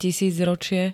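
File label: "tisícročie", Slovak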